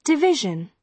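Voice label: "division", British English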